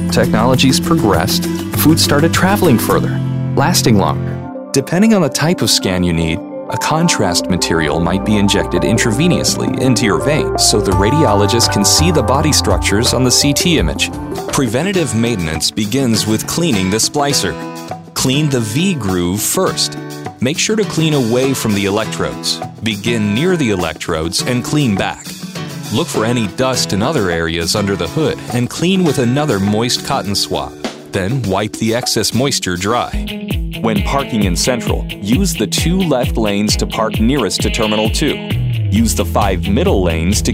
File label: Male Voice Over Talents Narration